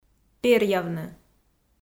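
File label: "перъявны", Komi, female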